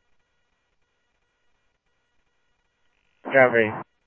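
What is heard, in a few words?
Mesa Simulcast Police Department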